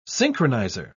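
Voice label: "synchronizer", British English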